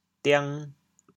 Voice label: "diang2", chaoyang

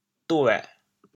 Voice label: "duê6", chaoyang; 潮阳; 兌

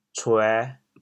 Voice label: "cuê5", chaoyang

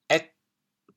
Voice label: "êg8", chaoyang

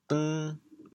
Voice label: "deng2", chaoyang

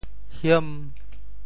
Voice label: "hiem5", Teochew; 潮州; 嫌货正是买货人

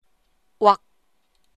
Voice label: "uak", rhymes

